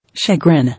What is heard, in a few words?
"Chagrin", English